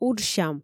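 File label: "уджсям" Komi, female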